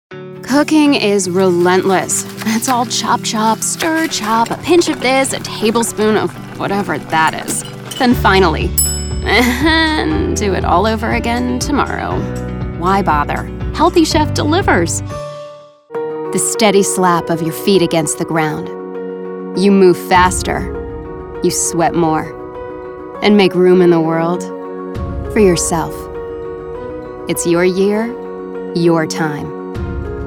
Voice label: Voice Actor; BigMouth Voices